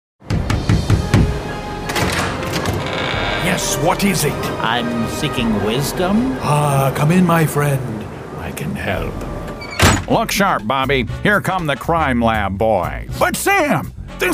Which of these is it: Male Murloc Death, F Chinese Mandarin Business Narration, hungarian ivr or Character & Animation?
Character & Animation